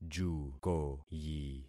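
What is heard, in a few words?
"jukoyi", concatenated syllables; Affixing experiment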